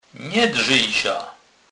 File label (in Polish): "drzec", kurpie; slownik